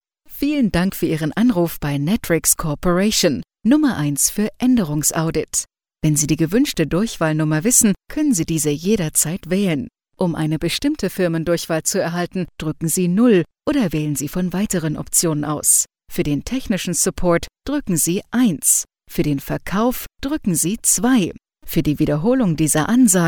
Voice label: IVR; Netwrix